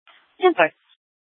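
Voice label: Scottsdale Police South Dispatch; Scttsdle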